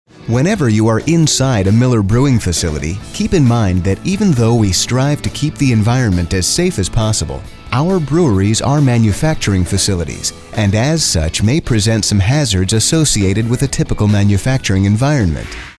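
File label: American narration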